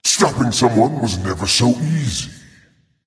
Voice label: taunt directed; smite; thanatos; mercury

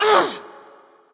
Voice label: freya frustration

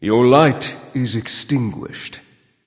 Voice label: taunt directed; smite; hou yi; nox

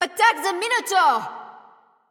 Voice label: vox attack m; smite; athena

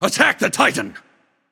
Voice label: vox attack; smite; raijin

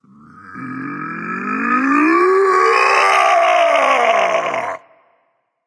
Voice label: battlecry, voice; Smite; ymir skin14

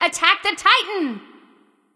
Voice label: vox attack m; smite; nuwa; voicepack

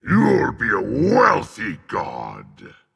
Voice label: emote dragon; smite; fafnir

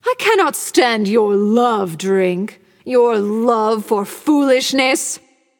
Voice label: taunt directed; bacchus

